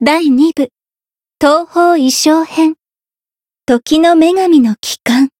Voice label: Voice title jp; ewella